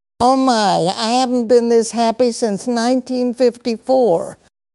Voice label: happy, speech; fertig classic games; ethel